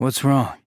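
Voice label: "What's wrong", male; rantaro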